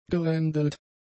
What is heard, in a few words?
"gerändelt", German; adjectives